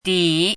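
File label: "dǐ", Mandarin Chinese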